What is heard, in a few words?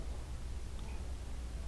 hey evp